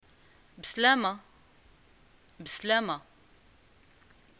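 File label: greeting farewell, Moroccan Arabic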